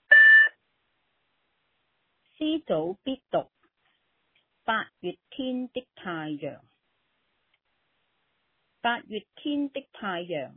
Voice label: Cantonese Read Aloud; 2021 ANCCS 國粵語朗讀稿漢語拼音 Read Aloud Articles